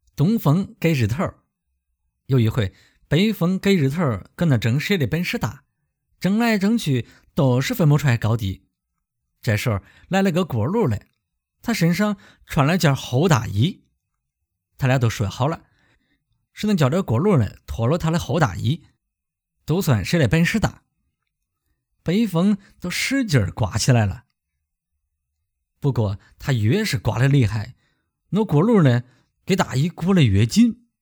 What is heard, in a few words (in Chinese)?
河南方言